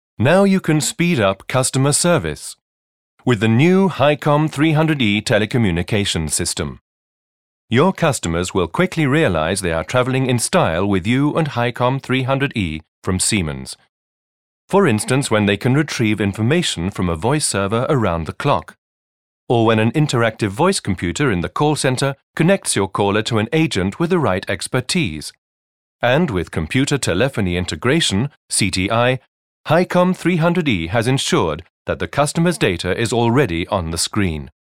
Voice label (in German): Kommentar Neutral